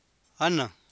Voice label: "ana̱nj", copala triqui

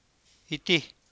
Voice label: "itij", Copala Triqui

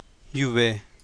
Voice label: "yuve̱", Copala Triqui

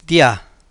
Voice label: "tiha̱j", Copala Triqui

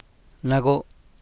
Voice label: "nago'", Copala Triqui

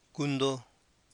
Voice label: "cundó", Copala Triqui

